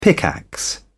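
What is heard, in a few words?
"pickaxe", British English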